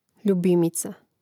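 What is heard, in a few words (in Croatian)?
"ljubimica", Croatian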